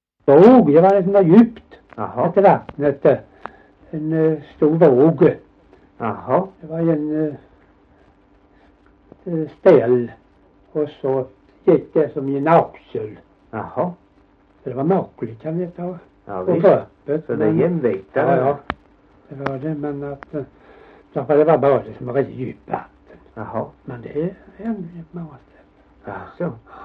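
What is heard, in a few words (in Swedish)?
Gällared Halland, dialekter; Folkminnen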